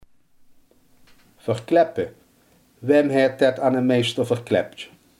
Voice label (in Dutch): "verklikken", limburgse